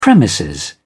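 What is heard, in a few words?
"premises", British English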